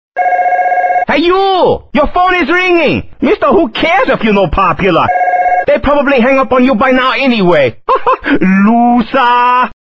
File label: FUNNY YOUR PHONE IS RINGING RINGTONE